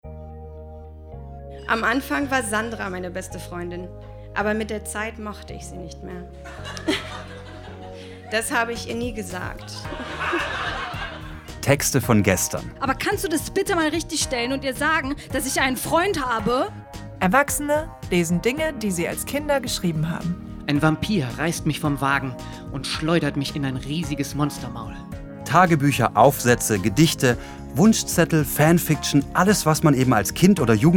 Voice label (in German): trailer